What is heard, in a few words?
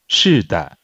Mandarin Conversation